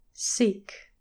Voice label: "seek", English pronunciation